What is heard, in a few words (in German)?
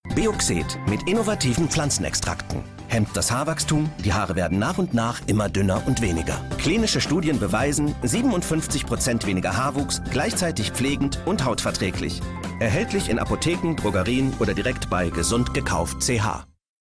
werbung spot; bioxet